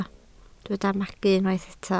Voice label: "magu", Welsh